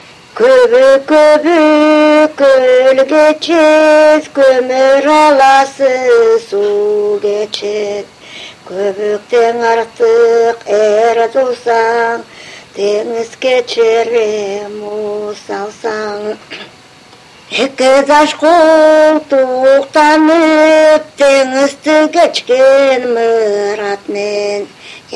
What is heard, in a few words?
teleut